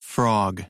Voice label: slow frog, American; American IPA phonic

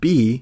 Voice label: bee, American

